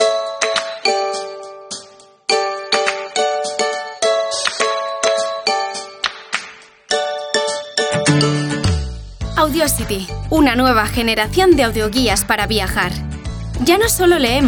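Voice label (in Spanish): CORPORATIVO CASTELLANO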